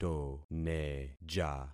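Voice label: "toneja", concatenated syllables; Affixing experiment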